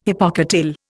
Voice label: "hypocotyl", Indian

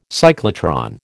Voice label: "cyclotron", American

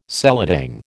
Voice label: "seladang", American

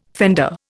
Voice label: "fender", Indian

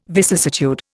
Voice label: "vicissitude", Indian

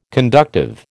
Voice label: "conductive", American

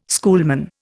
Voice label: "schoolman", Indian

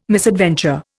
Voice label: "misadventure", Indian

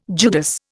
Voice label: "Judas", Indian

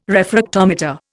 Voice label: "refractometer", Indian

